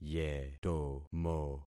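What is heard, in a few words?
"yetomo", concatenated syllables; Affixing experiment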